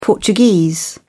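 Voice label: "portuguese", British English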